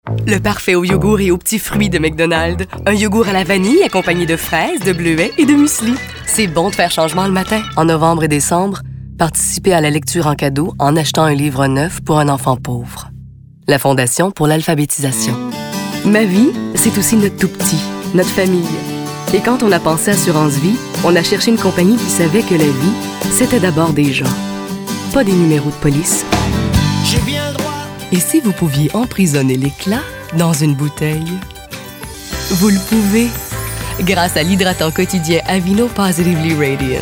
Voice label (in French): voix annonceur